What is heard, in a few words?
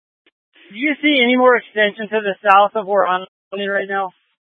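Incident Tactical 1, Scanner audio recording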